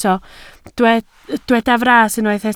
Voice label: "fras", Welsh

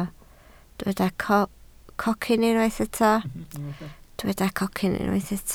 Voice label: "cocyn", Welsh

